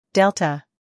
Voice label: "Delta" US English; sounds-of-american-english; everyone-can-use-english

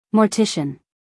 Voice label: "mortician", US female; 1000 hours

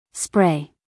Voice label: "spray" us female; everyone-can-use-english

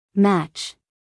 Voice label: "match", US female; everyone-can-use-english

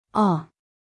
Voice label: "awe", us female

